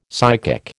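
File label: "psychic", American English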